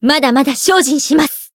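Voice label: growth, Japanese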